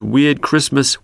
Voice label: weird christmas male; Voice; xmas